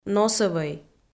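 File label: "носӧвӧй", Komi, female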